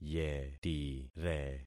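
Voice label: "yetire", concatenated syllables; Affixing experiment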